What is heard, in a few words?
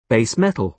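"base metal", British English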